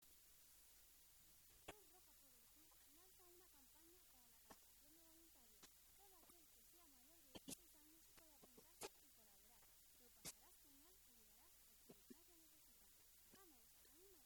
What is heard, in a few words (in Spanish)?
CUÑA RADIO